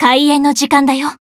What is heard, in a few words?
encount, jp; isuka